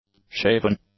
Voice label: "shaven", English; adjectives